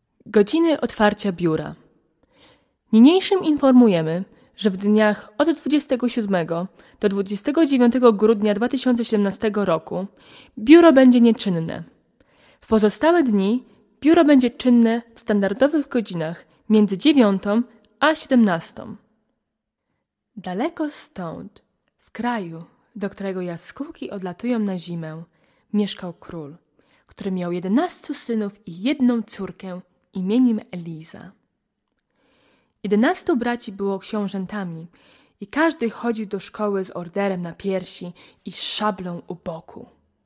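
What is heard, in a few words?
Polish, General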